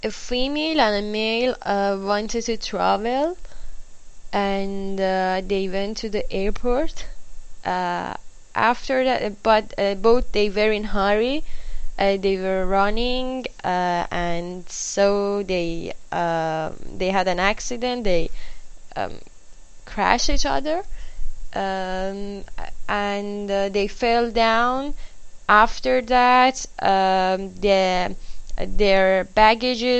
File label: farsi low